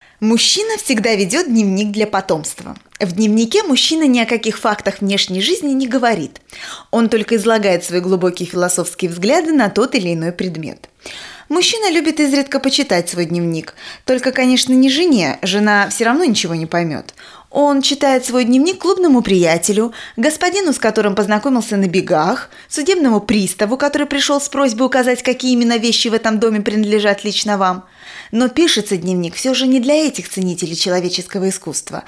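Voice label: Russian, female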